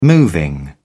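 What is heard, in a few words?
"moving", British English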